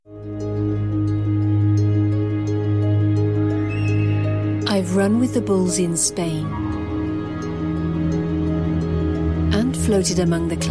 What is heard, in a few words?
TV commercial